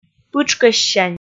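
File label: "пытшкӧссянь", Komi, female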